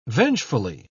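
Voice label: "vengefully", British English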